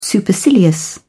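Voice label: "supercilious", English, uk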